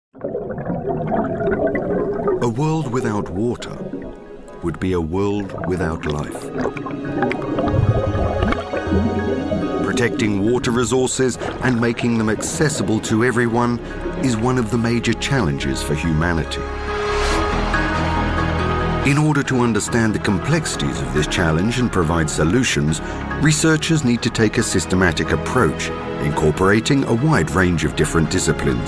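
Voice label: straight voice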